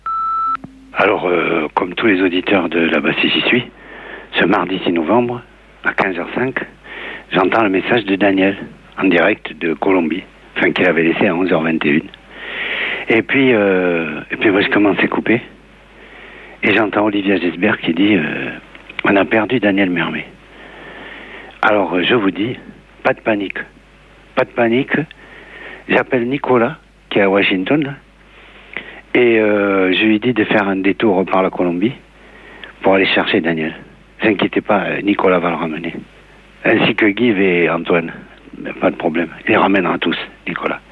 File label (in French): repondeur; On a perdu Daniel Mermet en Colombie